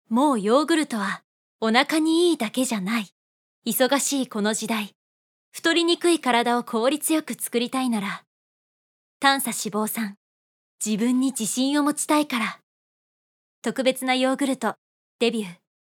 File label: female; クールな、堂々とした